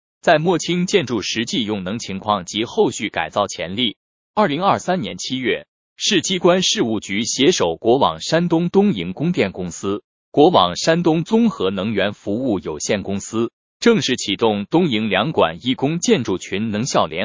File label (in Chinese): 配音